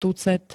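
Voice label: "tucet", Slovak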